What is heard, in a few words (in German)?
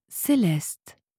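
"Celeste", französische Mädchennamen, Aussprache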